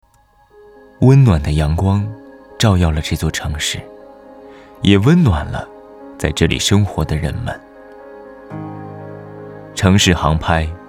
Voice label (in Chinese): 男 宣传片 男温暖介绍